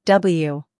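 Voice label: "w", American English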